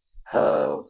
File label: Voice Acting es F